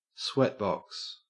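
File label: "sweatbox", Australian English; pronunciations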